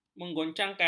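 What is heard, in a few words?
"menggoncangkan", Malay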